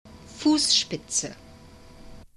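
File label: "fussspitze", German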